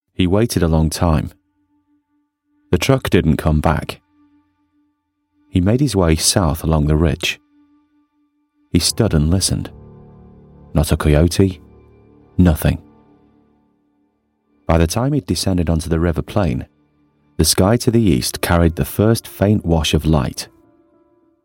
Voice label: audiobook storytelling, Natural Northen Male Voice